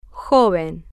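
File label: "joven", Spanish, female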